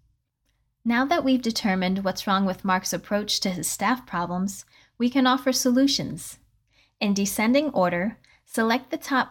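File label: Management Sales Training, Female Voice Over Actor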